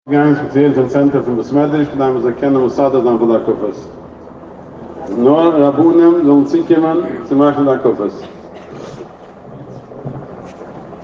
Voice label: Announcing Hakafos